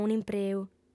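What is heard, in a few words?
"un'impreu", Sardinian, female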